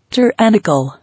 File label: "Tyrannical", English